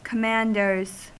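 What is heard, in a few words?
"commanders", US English; pronunciations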